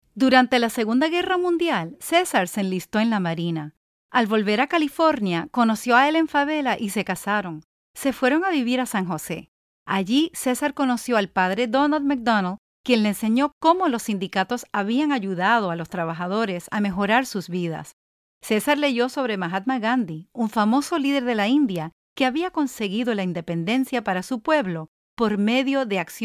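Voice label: Spanish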